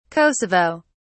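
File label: "kosovo", British English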